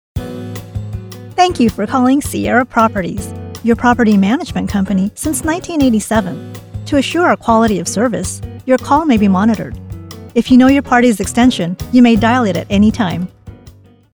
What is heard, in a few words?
telephone main greeting